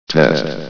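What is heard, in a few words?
"test", male synthesized announcer voice; hlvox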